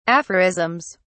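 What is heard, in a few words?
"aphorisms", British English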